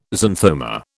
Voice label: "xanthoma", British